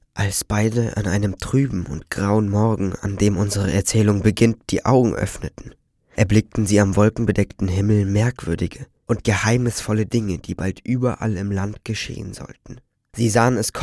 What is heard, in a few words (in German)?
jungen; Licht in Dunkelheit